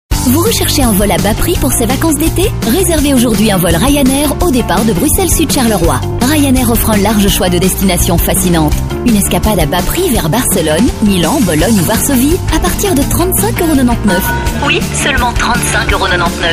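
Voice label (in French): french Belgian female commercial; voice demos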